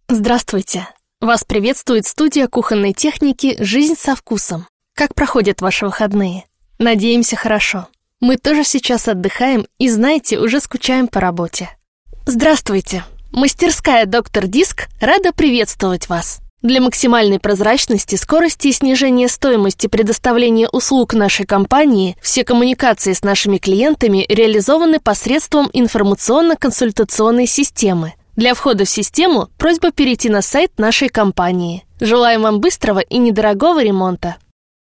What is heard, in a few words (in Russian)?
Avtootvetchiki; Diktor